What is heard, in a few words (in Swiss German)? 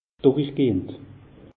"durichgehend", alsace; meteo